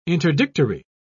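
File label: "interdictory", English, British English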